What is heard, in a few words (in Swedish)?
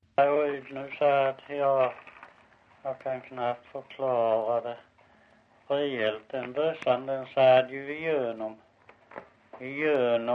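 Visseltofta Skåne, Svenska sagor dialekter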